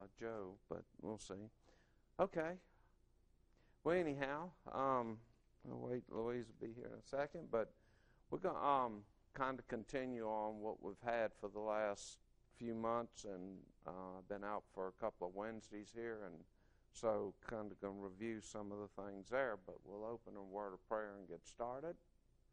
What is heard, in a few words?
English